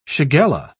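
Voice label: "shigella", British English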